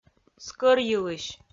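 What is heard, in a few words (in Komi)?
"скӧрйылысь", Komi-Zyrian, female; kpv audio dict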